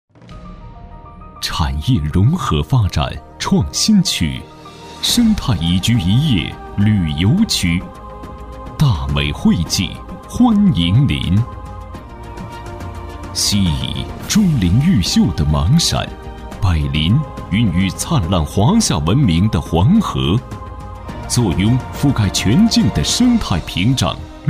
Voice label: 男 城市宣传片 庄重大气 旅游宣传片 片段; 郑州惠济区

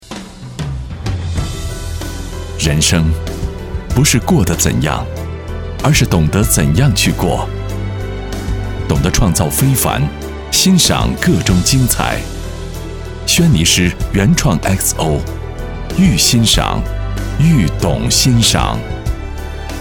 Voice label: 男 红酒广告 磁性品质; 轩尼诗

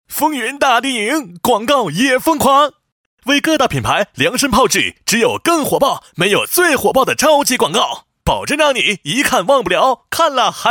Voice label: 男60号 轻松调侃; 风云大电影; 交广主播

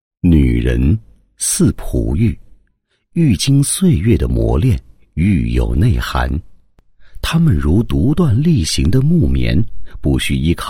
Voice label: 男 人物, 配音; 保险从业人员

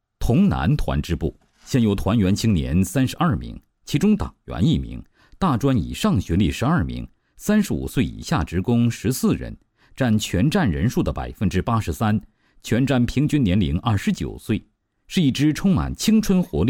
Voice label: 男 宣传片 宣传片配音; 潼南收费站青年文明号创建

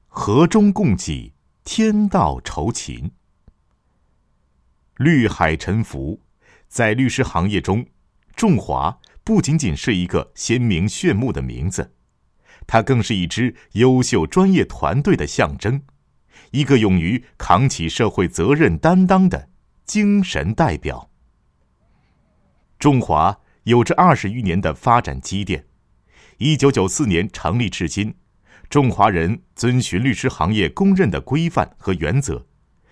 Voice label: 男 企业专题, 国语男声配音 温情人物专题; 众华律师事务所

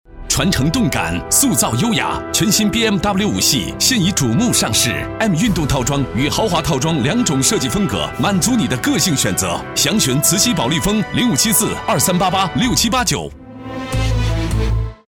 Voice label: 男 汽车广告 快语速动感; 宝马5系

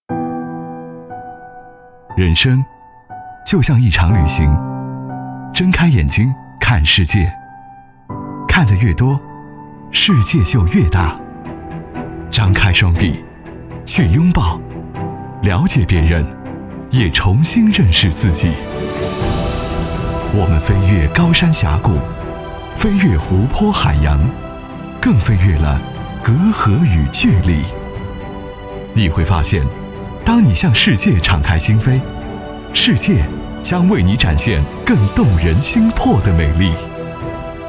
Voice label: 男 广告 航空 坚定 自信 沉稳, 磁性; 中国南方航空

